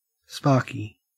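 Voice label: "sparky", Australian English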